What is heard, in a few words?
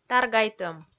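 "таргайтӧм", Komi, female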